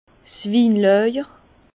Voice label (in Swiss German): "winlajer", Bas Rhin; vin; chai